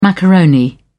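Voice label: "macaroni", British English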